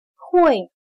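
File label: "会" Chinese, Huì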